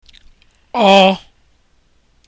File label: or letter, igbo; Ọka; Corn